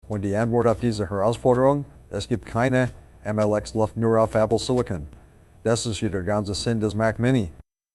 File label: german answer